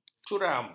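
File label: "curam", Malay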